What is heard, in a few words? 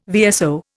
"VSO", Indian